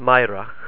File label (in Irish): "meidhreach", ireland; aigh-, -eidh-, -adh-, -agh; Monophtongs; faigheann, meidhreach, fadhb, laghad